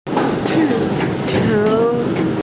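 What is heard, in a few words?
"qi4qiu2", Mandarin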